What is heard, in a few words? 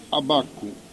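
"abbaccu", Corsican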